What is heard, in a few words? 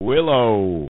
Title Voice; Willow; arcade